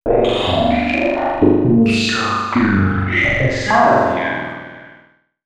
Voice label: male c135, voice; FX; Mutekki Media; Dance Ultra Voice VOL-1